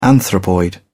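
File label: "anthropoid", English, British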